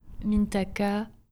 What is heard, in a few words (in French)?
"mintaka", prononciation